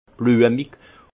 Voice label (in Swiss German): "bluemig", Alsace; floral; vin